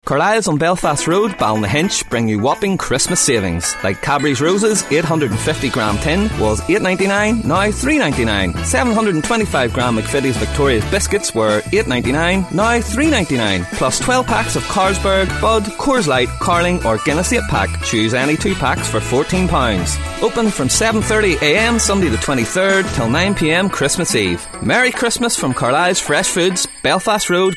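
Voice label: Irish English, Commercial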